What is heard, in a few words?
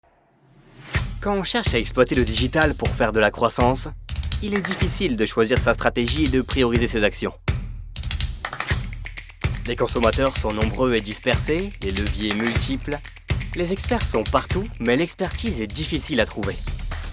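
French, male, explainer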